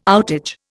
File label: "outage", Indian